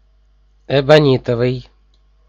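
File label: "эбонитовый", Russian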